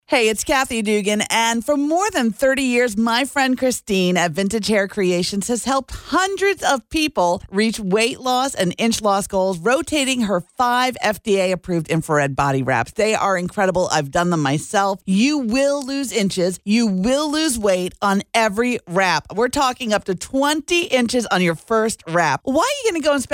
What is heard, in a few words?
radio promo; vintage hair creations